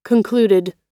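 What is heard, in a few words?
"concluded", US English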